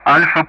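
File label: Speech Sound Russian Common Player Alphabet; DCS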